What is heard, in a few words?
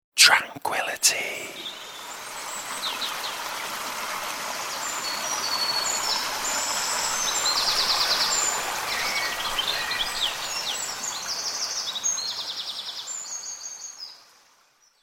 Tranquillity Spoken, The word ‘Tranquillity’ whispered in male voice with sounds of nature; Whispering